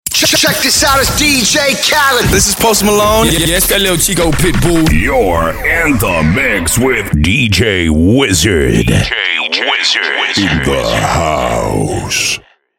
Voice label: dj khaled drop